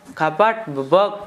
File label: knock on door, Gta, Dialect: Hill; kapaʈ bǝbog; knock on door, to